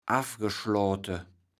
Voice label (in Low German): "affgeschlote", kaevels platt